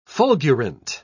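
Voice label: "fulgurant", British English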